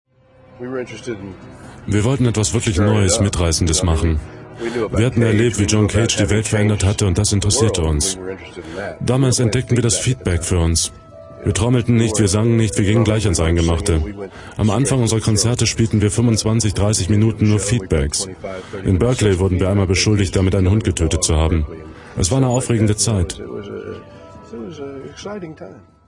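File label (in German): voiceover, deutsch männer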